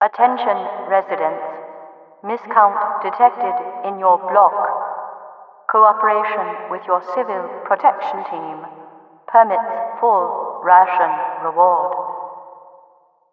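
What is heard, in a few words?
trainstation cooperation speaker; npc; cityvoice; overwatch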